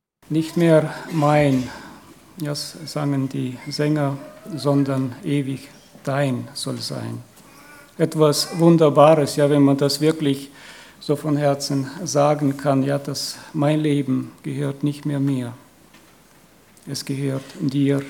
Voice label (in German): Ansage; Der wahre Frieden